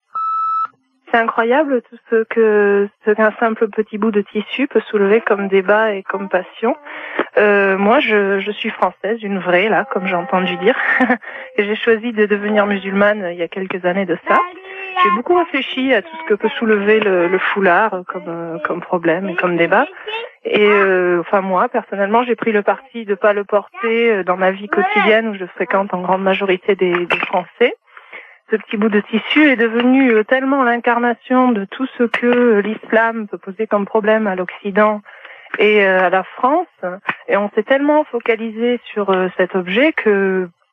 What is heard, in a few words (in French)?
répondeur